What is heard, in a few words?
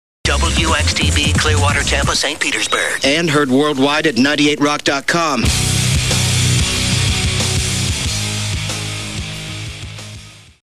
station ID; WXTB; 2007